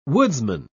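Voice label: "woodsman", British English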